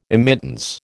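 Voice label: "immittance", American English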